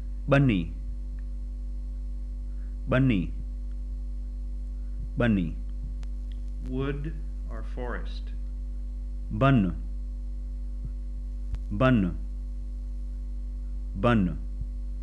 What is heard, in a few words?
Sindhi